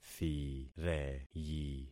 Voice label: "thireyi", concat syllables; Affixing experiment

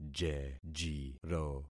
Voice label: "jejiro", concat syllables; Affixing experiment

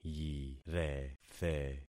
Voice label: "yirethe", concat syllables; Affixing experiment

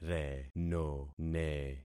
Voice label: "renone", concat syllables; Affixing experiment

